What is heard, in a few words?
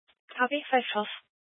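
Sheriff Dispatch, scanner audio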